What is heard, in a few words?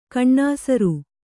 "ಘನಸಾರ", kannada